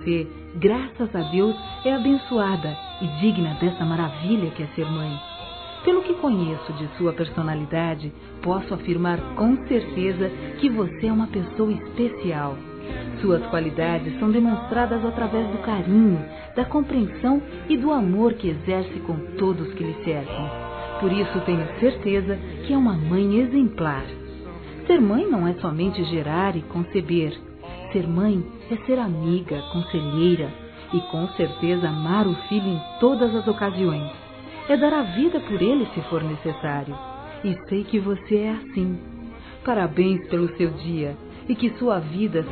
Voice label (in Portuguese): NEUTRO P MÃES F, Telemensagens, Dia das Mães; Telemensagens 2017